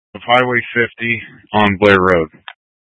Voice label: Incident Tac 1, scanner